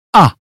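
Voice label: "cup", British phonetics sound; 英语音标发音（剑桥版）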